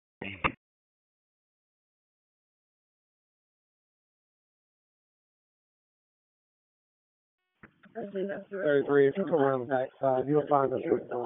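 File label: Public Safety Tactical, p25; wake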